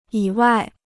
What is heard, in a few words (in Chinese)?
"以外", Chinese